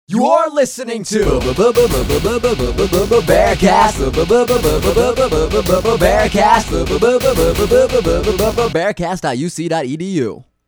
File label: radio imaging piece; Bearcast